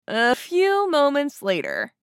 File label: A few moments later meme voice female; social media; Meme Sounds